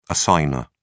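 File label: "assignor", British English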